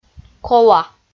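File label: "кола" Komi, female; audio dict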